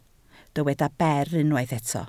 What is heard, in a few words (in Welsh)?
"ber", Welsh